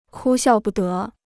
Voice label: "哭笑不得", Chinese

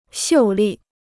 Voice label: "秀丽", Chinese

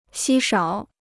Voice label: "稀少", Chinese

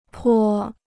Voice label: "颇", Chinese

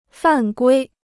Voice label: "犯规", Chinese

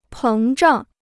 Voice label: "膨胀", Chinese

